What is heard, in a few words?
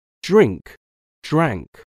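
"drink", English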